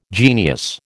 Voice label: "genius", American